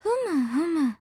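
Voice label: agree, Japanese; RPK203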